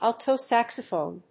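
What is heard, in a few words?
"alto saxophone", English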